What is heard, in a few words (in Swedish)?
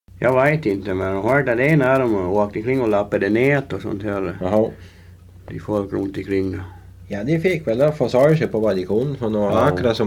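Vamlingbo Gotland, dialekter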